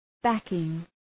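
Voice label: "backing", English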